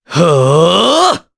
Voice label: Casting, jp; Pavel; kingsraid

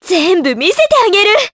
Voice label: Vox Skill jp; Juno; kingsraid

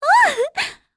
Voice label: Happy; voices; heroes; Talisha; kings raid

